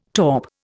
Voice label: "taupe", Indian